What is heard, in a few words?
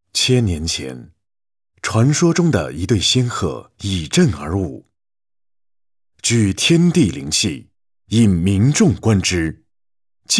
VOICES ENGLISH Male Adult; KERSOUND